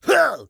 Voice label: Drunken Friar; Rabbids Multiverse

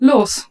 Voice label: German, Go

